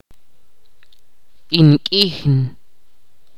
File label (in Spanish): "caliente", Ch'orti'